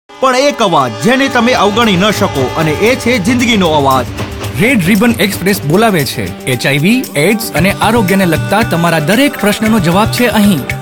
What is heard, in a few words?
Radio Gujarati